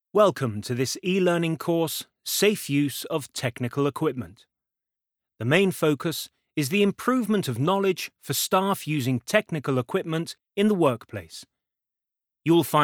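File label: British elearning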